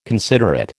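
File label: "considerate", American English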